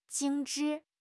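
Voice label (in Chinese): "精致", Chinese; HSK; HSK6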